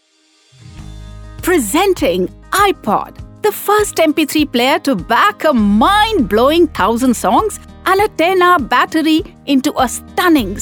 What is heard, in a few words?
Explainer (English)